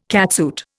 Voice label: "catsuit", Indian